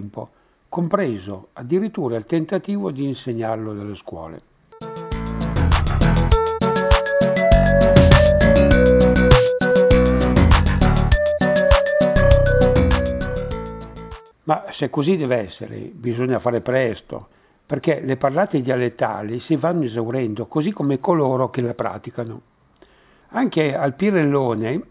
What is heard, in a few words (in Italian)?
dialetto